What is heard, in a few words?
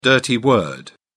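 "dirty word", British English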